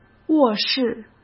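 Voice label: "卧室", Mandarin Chinese; hsk; chinese-flashcards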